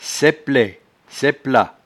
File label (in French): "saiplet", patois jura